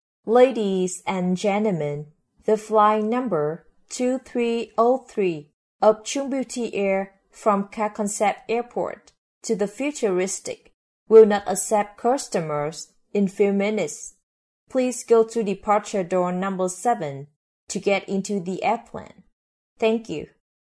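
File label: Vietnamese Female English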